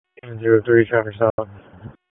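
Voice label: Police Dispatch, p25; Wake Forest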